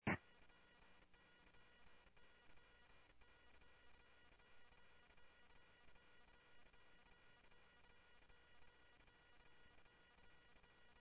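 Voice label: police radio scanner traffic, Mesa Simulcast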